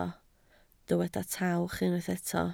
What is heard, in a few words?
"tawch", Welsh